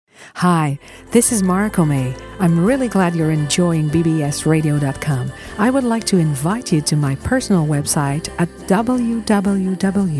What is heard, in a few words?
bbs radio promo